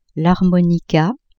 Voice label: "harmonica", French; instruments

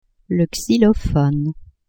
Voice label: "xylophone", French; le xylophone the xylophone; instruments

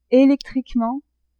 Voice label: "electriquement", French; adverbs

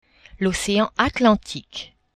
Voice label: "ocean Atlantique", French; geographie

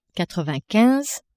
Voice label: "quatre vingt quinze", French; nombres

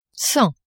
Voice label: "cent", French; nombres

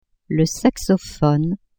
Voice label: "saxophone", French; le saxophone the saxophone; instruments

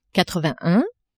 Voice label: "quatre vingt un", French; nombres

